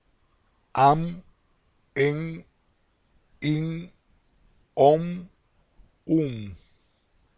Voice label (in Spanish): a e i o u m, Spanish